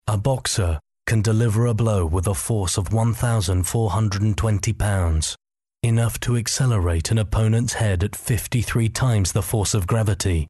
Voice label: serious

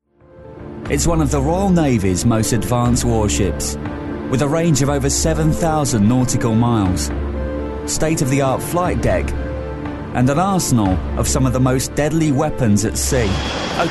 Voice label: documentary

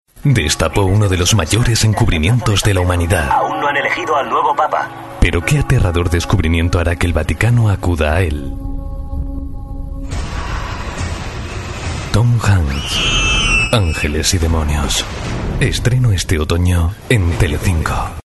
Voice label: promo

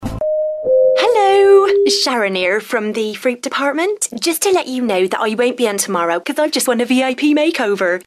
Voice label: west country

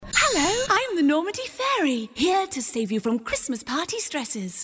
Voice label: happy

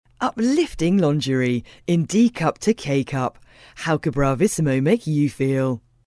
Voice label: sassy

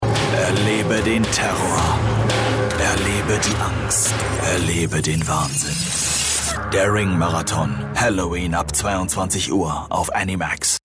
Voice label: Promo

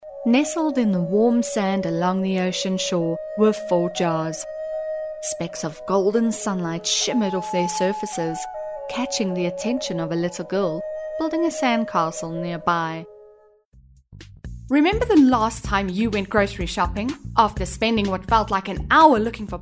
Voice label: South African

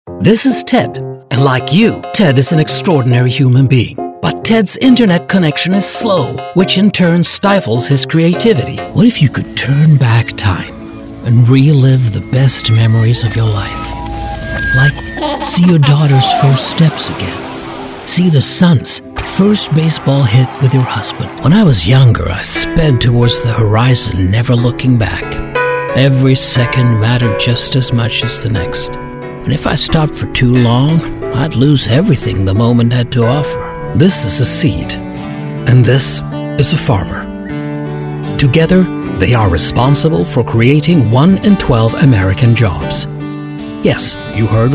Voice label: commercial eng

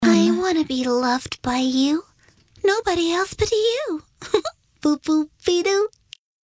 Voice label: american accent, voice

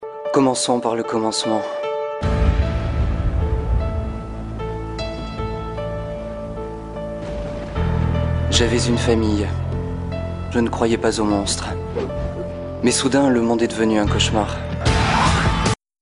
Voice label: film trailer

